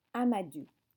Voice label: "amadou", French